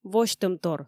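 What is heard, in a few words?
"восьтӧмтор", Komi, female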